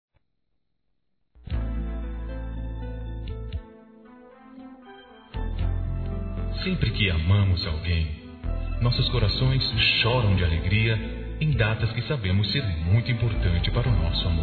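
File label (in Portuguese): Aniversário Romantica Masculino; Aniversários; ANIVERSARIO romantico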